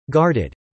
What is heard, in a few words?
"guarded", English